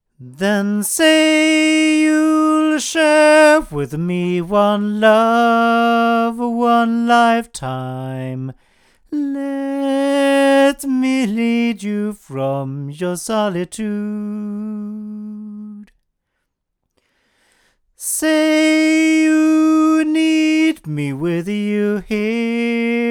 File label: male; Milab VM-44; microphones